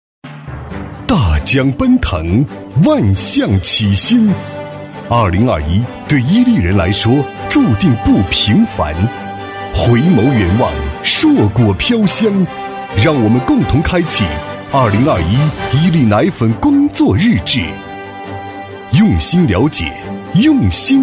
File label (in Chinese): 男 颁奖 企业 大气力度; 成熟厚重; 《伊利集团》